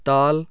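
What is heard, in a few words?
voiceless unaspirated dental, hindi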